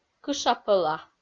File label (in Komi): "кыша-пӧла" Komi